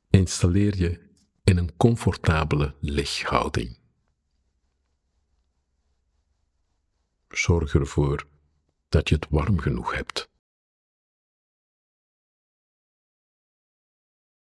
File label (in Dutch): Ligrelaxatie Autogene training